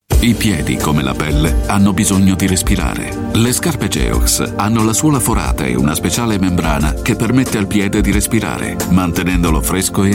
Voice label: Italian Male